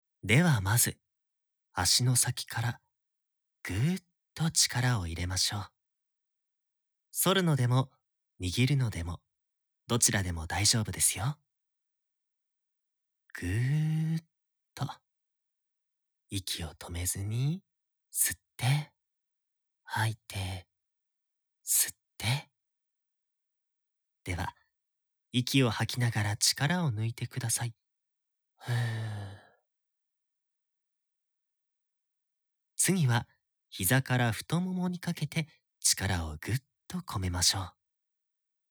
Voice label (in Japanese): ループ対応安眠導入音声 ASMR